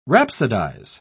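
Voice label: "rhapsodise", British English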